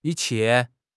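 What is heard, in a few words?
"yi1 qie4", Mandarin Chinese; HSK4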